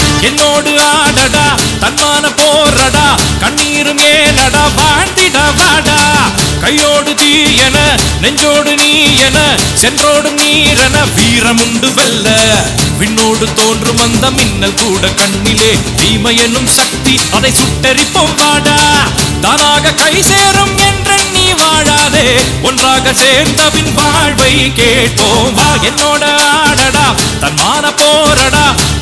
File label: Tamil